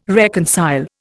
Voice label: "reconcile", Indian